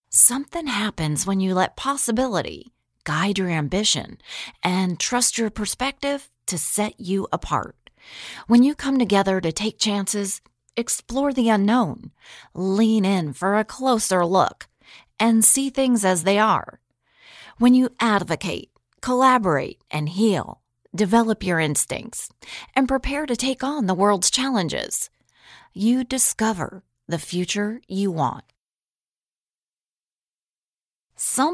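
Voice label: English US, general; GoLocalise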